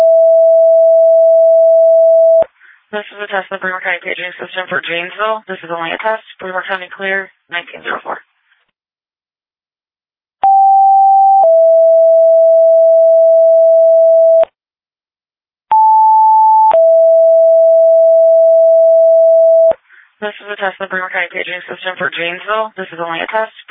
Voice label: Fire, dispatch; Janesville

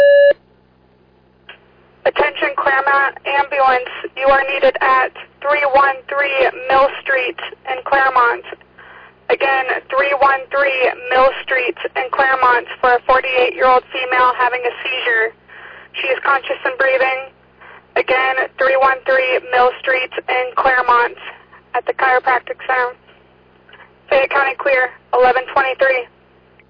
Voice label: Fire, dispatch; Clermont

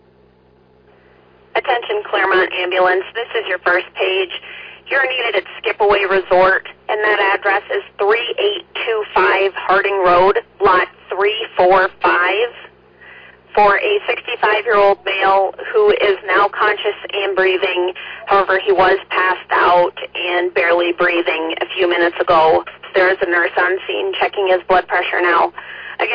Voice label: Fire, dispatch; Clermont

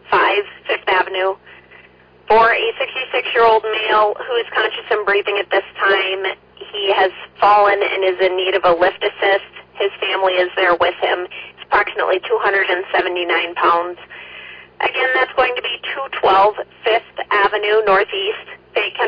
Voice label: Oelwein Ambulance, dispatch

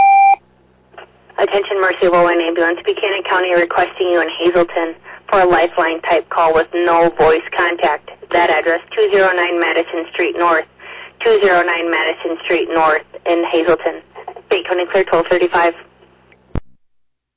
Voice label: Oelwein Ambulance, dispatch

